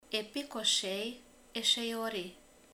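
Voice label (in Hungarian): "kislány", Hungarian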